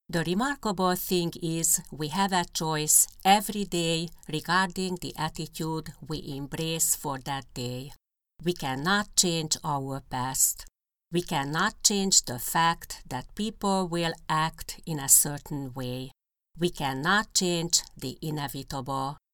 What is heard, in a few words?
English Foreign English Accent